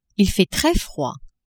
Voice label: il fait tres froid, french; temps

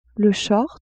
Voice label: "short", French; vetements

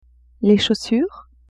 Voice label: "chaussures", French; vetements

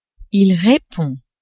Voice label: "il repond", French

